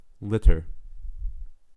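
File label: "litter", US English; pronunciations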